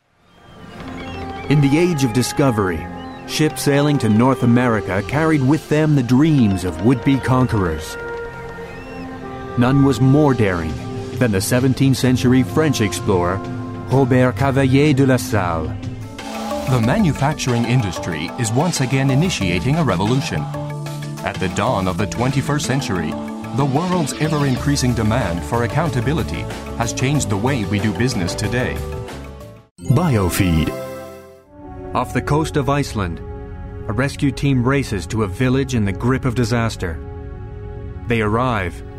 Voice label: USA, M